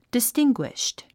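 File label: "distinguished", English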